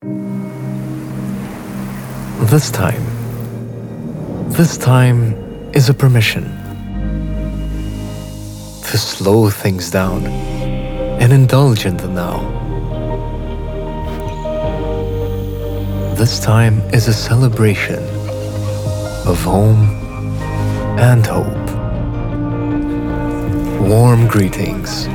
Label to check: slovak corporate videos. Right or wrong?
Wrong